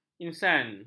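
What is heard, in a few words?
"insan", Malay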